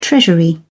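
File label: "treasury", British English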